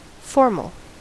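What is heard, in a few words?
"formal", American English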